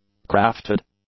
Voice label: "crafted", English; adjectives